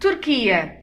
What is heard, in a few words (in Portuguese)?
turkey, pt; gcompris; geography